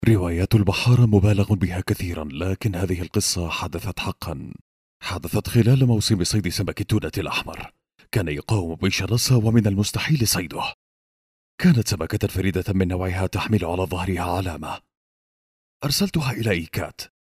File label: arabic egyptian voiceover locutor arabe egipto